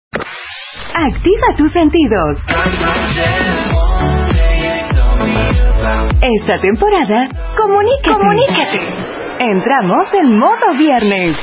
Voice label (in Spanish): Ambient FEM; Arte; Primavera 2022